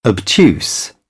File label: "obtuse", British; AmericanBritish